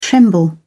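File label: "tremble", British English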